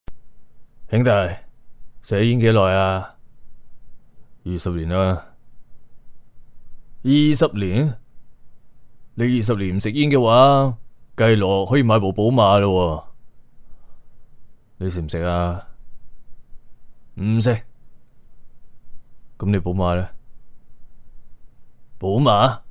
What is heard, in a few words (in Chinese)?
男【角色】戒烟